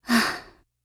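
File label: LOW MOOD, Japanese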